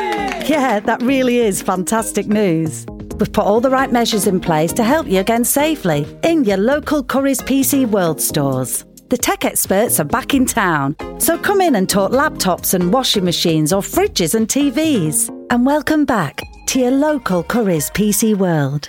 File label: radio commercial